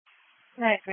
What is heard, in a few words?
EMS Dispatch